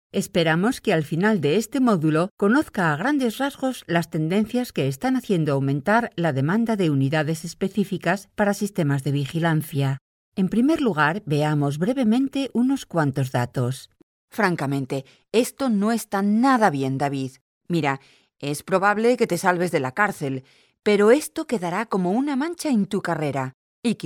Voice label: spanish e-learning; comédienne professionnelle de doublage espagnole